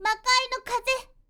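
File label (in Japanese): magi girl "makainokaze", Japanese; magi syozyo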